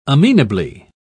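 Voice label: "amenably", English, British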